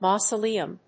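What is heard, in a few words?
"mausoleum", English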